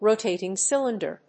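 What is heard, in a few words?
"rotating cylinder", English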